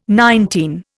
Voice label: "nineteen", Indian